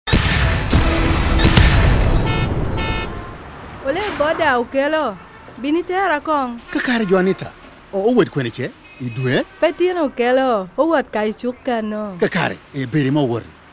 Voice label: Taking Charge LANGI, adolescent health campaign radio spots; BOLD